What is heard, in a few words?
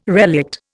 "relict", Indian